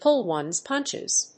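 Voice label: "pull ones punches", English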